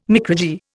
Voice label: "micrurgy", Indian

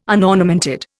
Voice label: "unornamented", Indian

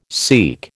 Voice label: "seek", American

